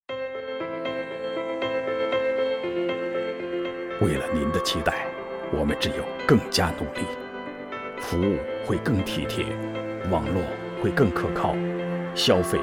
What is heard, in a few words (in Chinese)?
广告 雄浑大气配音; 中国移动通信